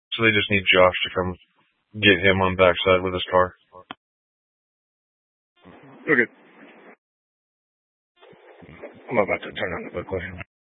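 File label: Sheriff Dispatch, Scanner audio recording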